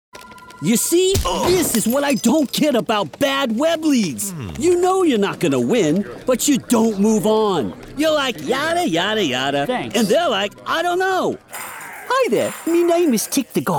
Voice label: Character Kids; Demo